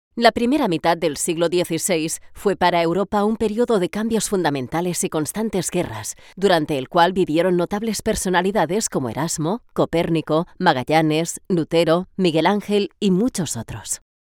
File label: spanish narration